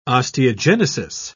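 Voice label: "osteogenesis", British English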